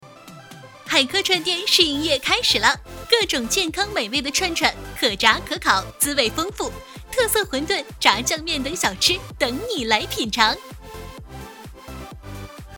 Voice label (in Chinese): 广告促销; 青年活力女